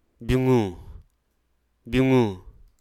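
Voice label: Hruso Aka; cobra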